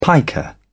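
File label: "piker", British English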